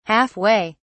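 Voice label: "half way", British English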